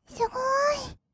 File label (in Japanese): "すごーい", kawaii voice; 1200 kawaii voice!!; 色々なワンショット